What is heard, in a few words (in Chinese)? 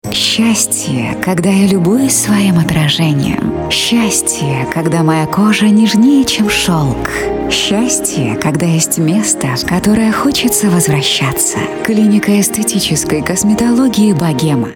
俄语女-温情宣传片